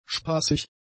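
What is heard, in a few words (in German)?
"spaßig", german; adjectives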